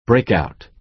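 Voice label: "breakout", American English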